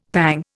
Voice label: "pang", Indian; Dictionary

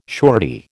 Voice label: "surety", American

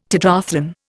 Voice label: "tetrathlon", Indian

